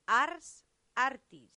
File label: "ars artis", latin; santillana